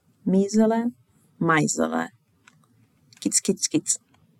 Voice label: Ordlista, Jiddish

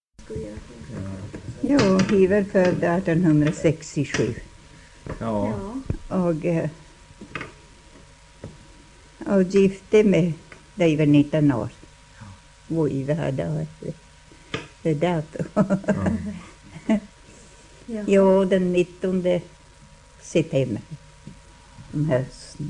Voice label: Byske, dialekter

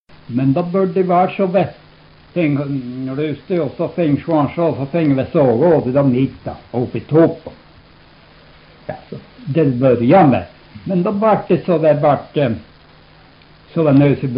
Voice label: Alvsbyn, dialekter

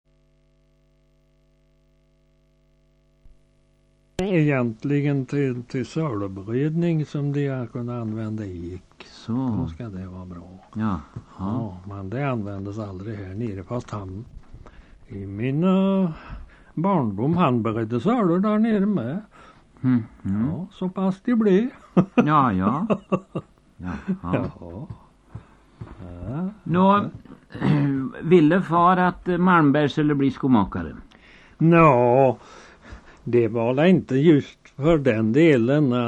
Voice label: Liared, dialekter; Svenska sagor; Folkminnen

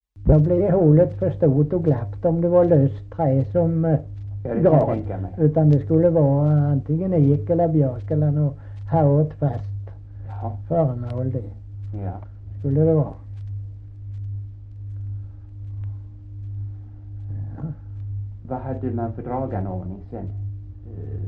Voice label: Kristianopel BLEK, dialekter; Folkminnen

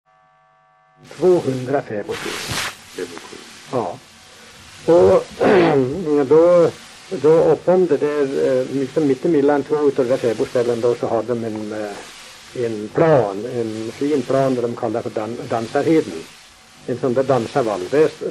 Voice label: dialekter; Folkminnen

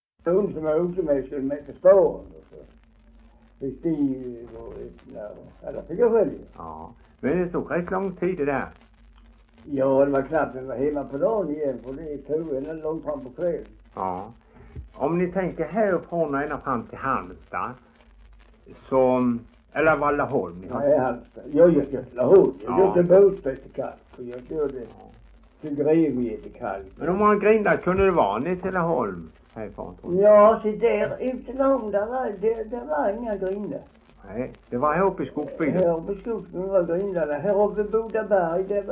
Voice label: Veinge HALL, dialekter; Folkminnen

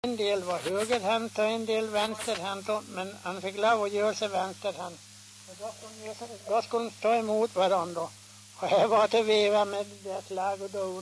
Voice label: dialekter; Folkminnen

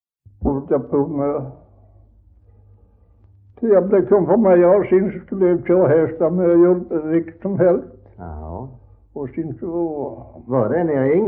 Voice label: Västra Ingelstad, dialekter; Folkminnen

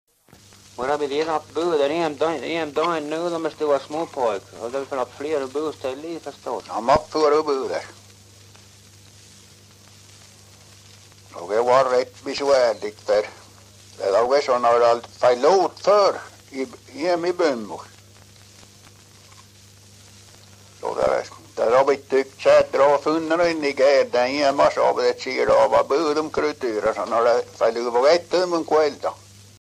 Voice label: Alvdalen, dialekter

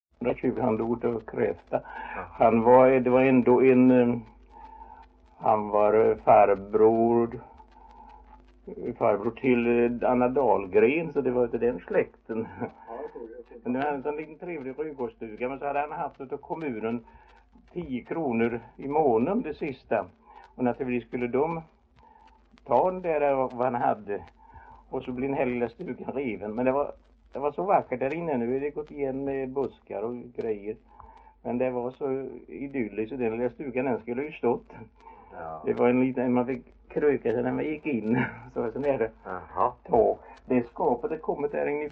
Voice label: Idala, dialekter; HALL

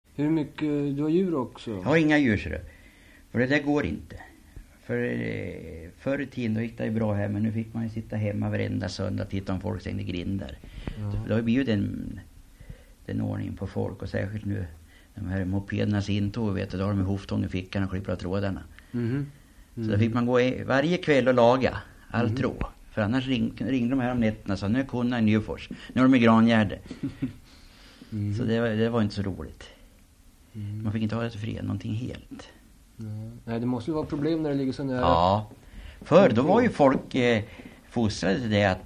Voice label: Eskilstuna, dialekter; SDML

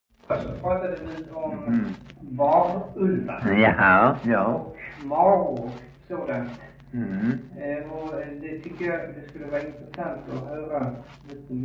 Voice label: Östra Ingelstad SK, dialekter; Folkminnen

